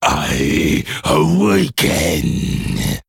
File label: Male Mummy (Troll) Play